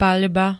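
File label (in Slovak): "paľba", Slovak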